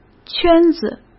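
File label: "圈子" Mandarin Chinese; hsk; chinese-flashcards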